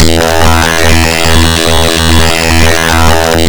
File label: Vocode; Defender